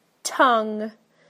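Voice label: "tongue", British English; PictureDictionary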